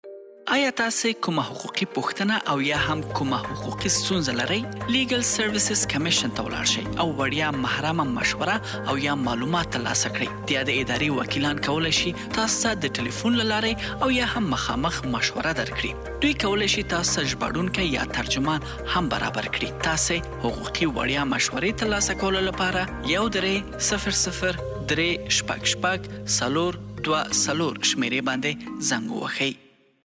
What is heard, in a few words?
PASHTO